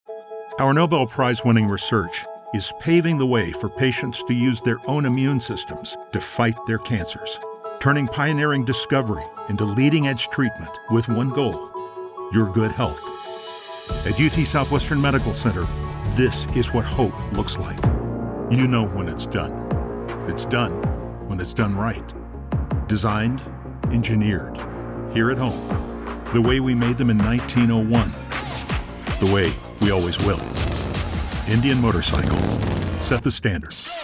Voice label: Narr